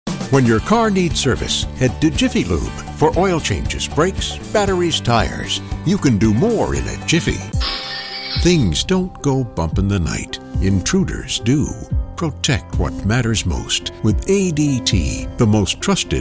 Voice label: Commercial